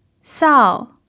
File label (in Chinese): "sao4", f; chinese